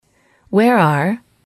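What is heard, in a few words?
"where are", English, female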